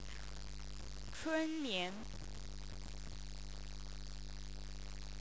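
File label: "chunlian", Chinese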